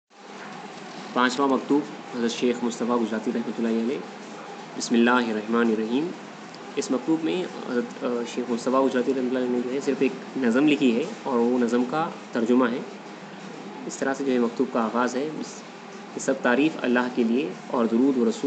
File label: Letter Gujrati; Fifth Letter Hz Shaik Mustafa; maktoobat letters hz sheikh mustafa